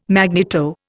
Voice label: "magneto", Indian